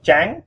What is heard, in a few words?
white, Vietnamese